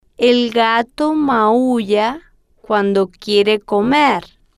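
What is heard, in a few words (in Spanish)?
"el gato maulla cuando quiere comer", spanish, female, slow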